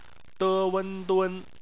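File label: "dun" bopomofo, Chinese; phonetics; bpmf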